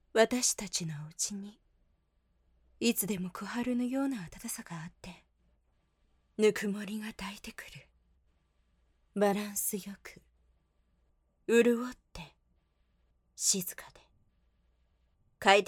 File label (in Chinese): 外籍日语女 广告类